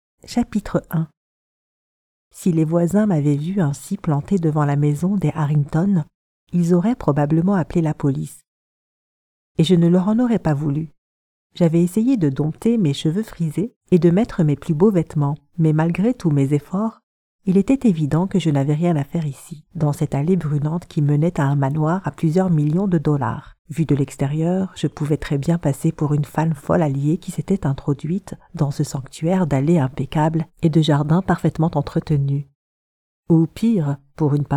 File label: audiobook